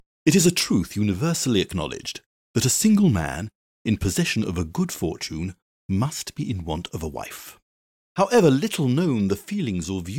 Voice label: english british audiobooks